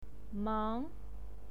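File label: "mang", Mandarin Chinese, second tone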